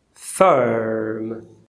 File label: "firm", English